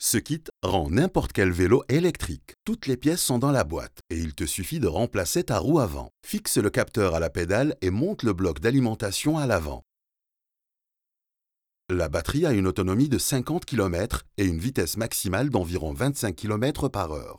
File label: french narration